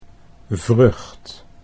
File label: "vrucht", Dutch